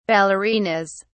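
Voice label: "ballerinas", British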